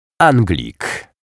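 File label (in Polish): "Anglik", Polish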